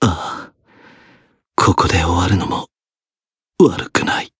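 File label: Voice retire, Japanese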